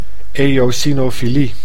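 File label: "eosinofilie", Dutch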